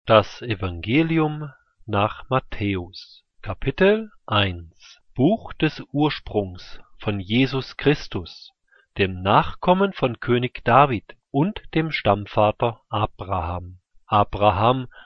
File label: german; new testament psalms and proverbs; deu german standard audio bible